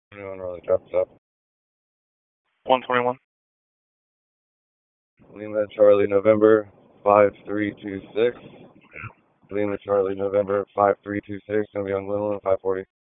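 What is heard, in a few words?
Police Southwest District Dispatch, p25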